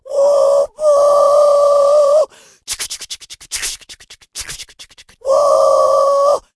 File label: Troll Female Choo Choo